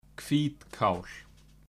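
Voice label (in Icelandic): "hvítkál", Icelandic; matarhefti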